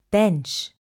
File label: "bench", spanish